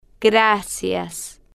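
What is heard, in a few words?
"gracias", slow, spanish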